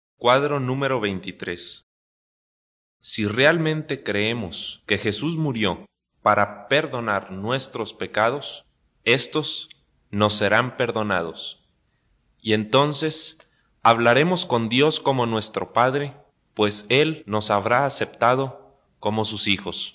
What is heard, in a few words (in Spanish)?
good news spanish mexico